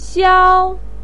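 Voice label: "xiao1" Chinese syllable, pinyin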